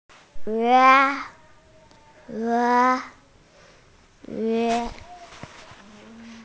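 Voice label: "yun2", Mandarin Chinese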